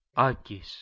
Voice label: "akis" Ancient Greek